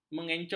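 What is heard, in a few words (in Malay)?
"mengencong", Malay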